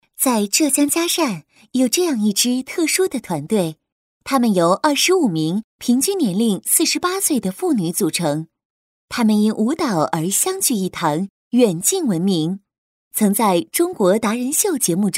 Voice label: 女 专题 禁毒团队

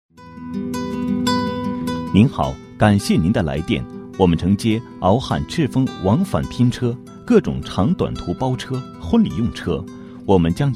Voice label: 男 彩铃; 拼车

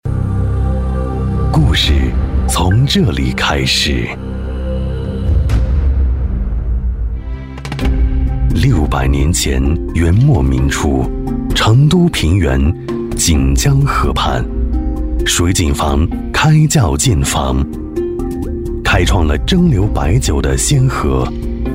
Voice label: 男 专题 沧桑

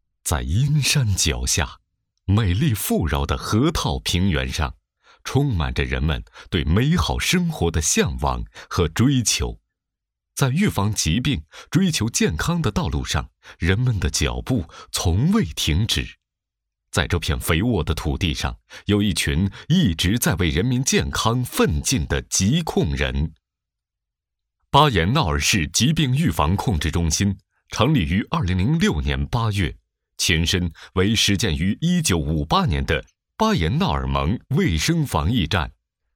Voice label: 男 专题; 巴彦淖尔市

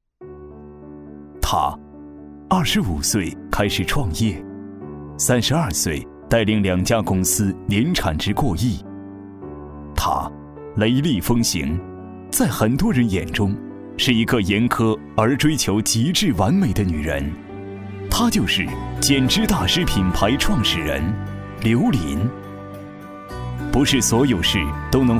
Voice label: 男5 专题 (带干音); 简脂大师品牌创始人

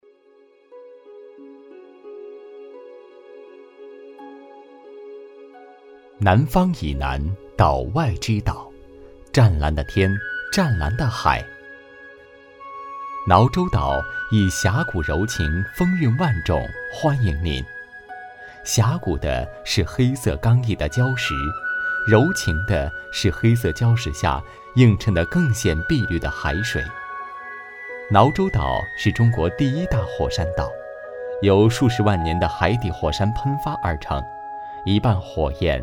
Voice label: 男 专题; 魅力硇洲岛

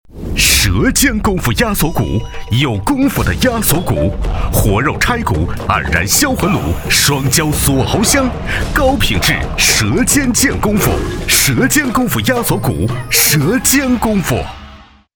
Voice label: 男 广告

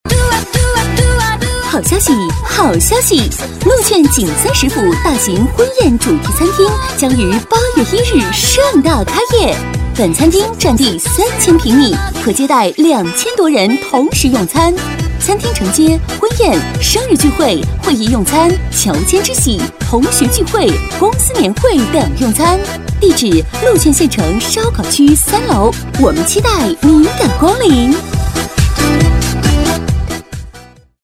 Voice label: 女 促销; 禄劝景三食府

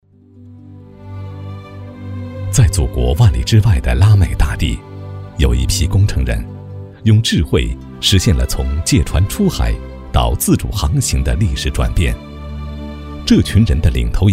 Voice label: 男 专题 人物介绍; 戚乐方

